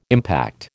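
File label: "impact", American English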